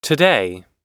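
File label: "today", English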